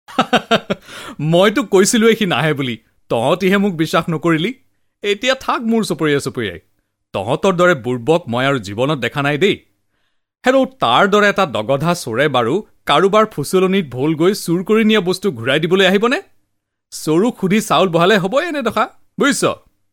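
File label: Assamese Drama; voice demos; GoLocalise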